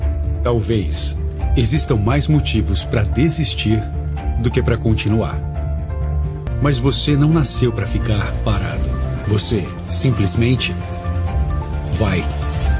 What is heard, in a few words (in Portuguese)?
Portuguese Brazil